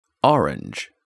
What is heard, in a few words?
"orange", English